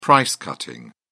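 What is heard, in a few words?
"price cutting", British English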